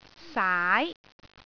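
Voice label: "saai2", Cantonese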